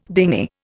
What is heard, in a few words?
"dingy", Indian